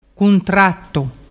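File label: "cuntrattu", Vernazzese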